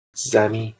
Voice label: "zami", Persian